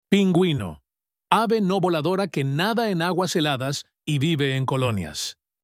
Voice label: "Pinguino", spanish; 48 animals spanish